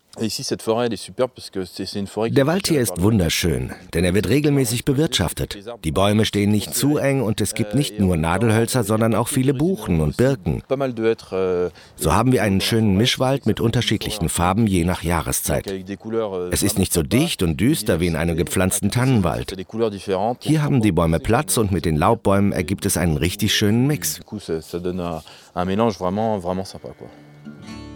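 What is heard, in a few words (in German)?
voiceover, deutsch männer; sprecher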